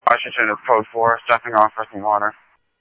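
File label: Light Rail Security Light Rail, scanner audio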